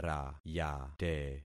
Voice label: "rayate", concat syllables; Affixing experiment